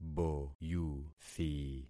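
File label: "boyuthi", concatenated syllables; Affixing experiment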